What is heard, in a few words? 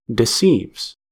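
"deceives", US English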